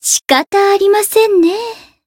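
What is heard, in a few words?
heal, Japanese; rosetta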